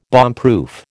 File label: "bombproof", American